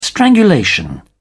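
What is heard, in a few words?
"strangulation", British English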